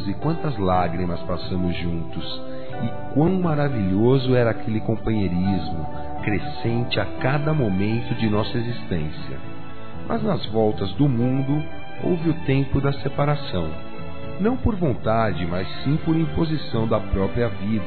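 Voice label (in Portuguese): Como Vai Você (Amizade), masculino; Telemensagens; Telemensagens 2017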